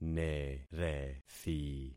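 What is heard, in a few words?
"nerethi", concatenated syllables; Affixing experiment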